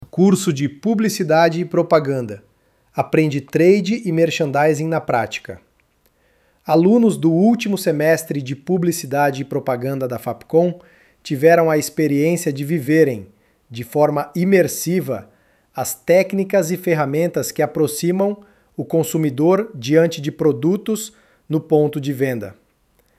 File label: voiceover; voiceover generator; freepik